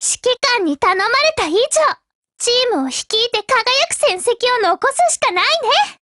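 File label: black action, voice, Japanese; GF Alarm; C93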